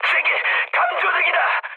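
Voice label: Korean searchlite mood high; War Thunder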